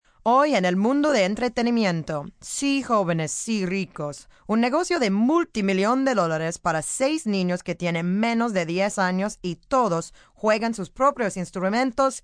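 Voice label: News 6 Kids, Broadcast, news, female news item in Spanish on entertainment, child music; female news item in Spanish (1b) on entertainment, child musicians (with humour). (human voice speaking); VoiceBox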